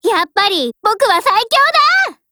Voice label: WIN JP; M1919A4